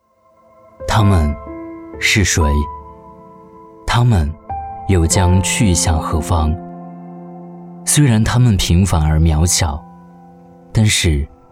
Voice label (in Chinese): 走心旁白; 他们是谁